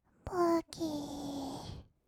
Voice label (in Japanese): "ぼけーっ", kawaii voice; 1200 kawaii voice!!; 色々なワンショット